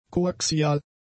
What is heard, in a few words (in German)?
"koaxial", German; adjectives